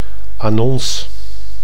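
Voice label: "annonce", Dutch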